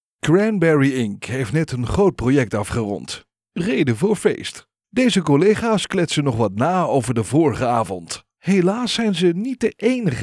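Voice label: Audiobook Dutch native trustworthy mysterious warm, male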